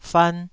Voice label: "fan2", Taiwanese

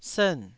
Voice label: "sern4", taiwanese

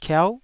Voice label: "kiau9", taiwanese syllables

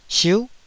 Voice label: "siuh9", taiwanese Syllables

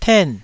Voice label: "ten4", Taiwanese

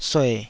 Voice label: "sue3", taiwanese

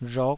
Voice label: "rhok5", taiwanese

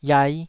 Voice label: "iai5", Taiwanese syllable

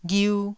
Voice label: "ghiu1", Taiwanese syllable

